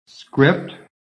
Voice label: "SCRIPT", american

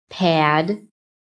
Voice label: "pad", phonetic

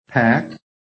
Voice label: "PACK", american; PHONETIC

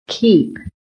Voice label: "KEEP", american

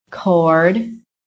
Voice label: "CORD", american

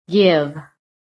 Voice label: "GIVE", american

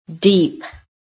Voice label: "deep", American

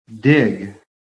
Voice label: "DIG", american

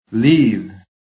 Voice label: "LEAVE", american; PHONETIC

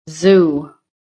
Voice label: "ZOO", American

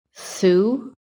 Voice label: "SUE", american

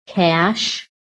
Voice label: "CASH", american

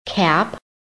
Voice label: "CAP", american; PHONETIC REFERENCE

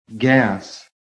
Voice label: "GAS", american

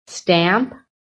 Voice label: "STAMP", american

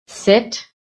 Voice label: "SIT", american

